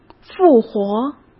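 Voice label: "复活", Mandarin Chinese; chinese flashcards; hsk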